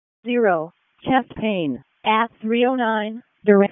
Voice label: EMS ALERT, p25